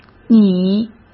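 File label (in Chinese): "拟", Mandarin Chinese; chinese-flashcards; hsk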